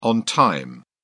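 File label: "on time", English, British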